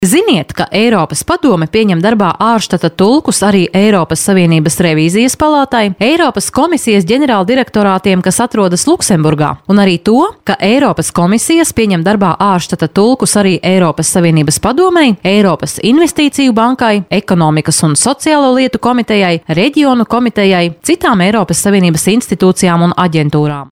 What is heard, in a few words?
latvian f narration, Latvian voice; voice demos